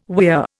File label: "weir", Indian